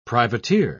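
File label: "privateer", British English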